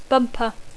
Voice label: "bumper", English